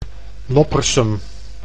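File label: "Loppersum", Dutch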